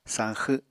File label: "sañxw", Apatani